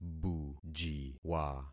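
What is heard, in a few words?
"bujiwa", concatenated syllables; Affixing experiment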